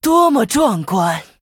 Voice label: Female Human WOW; zh